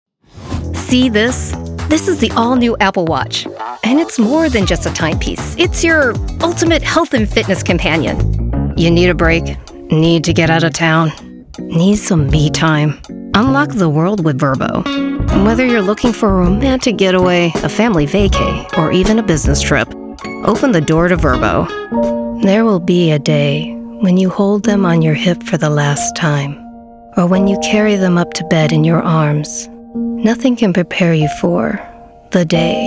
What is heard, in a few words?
Commercial